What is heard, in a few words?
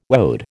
"woad", American